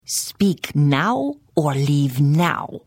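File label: Hello, Khajiit female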